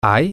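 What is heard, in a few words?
Spanish, male; is Are There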